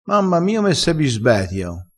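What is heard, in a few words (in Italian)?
"bisbetio", vocabolario dialettale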